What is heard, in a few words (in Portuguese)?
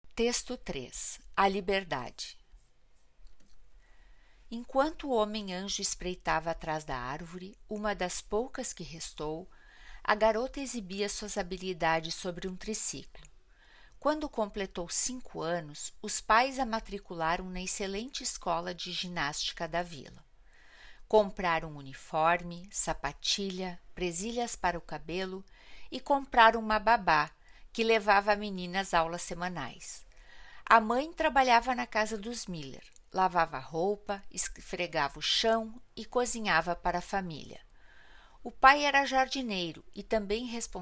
narração; A liberdade; galeria virtual